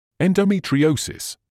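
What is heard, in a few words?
"endometriosis", British English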